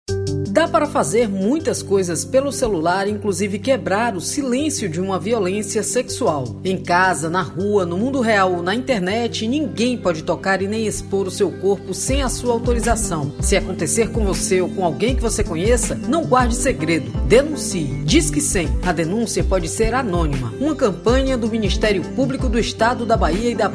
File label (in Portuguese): Spot Institucional